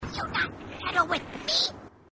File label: grunt; h2dialogue; grunt crazy